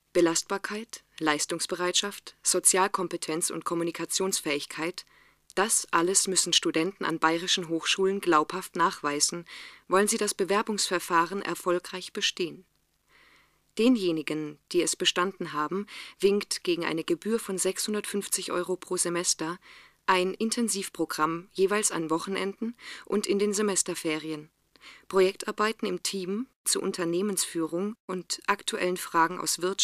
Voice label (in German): hoerprobe sachtext